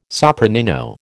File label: "sopranino", American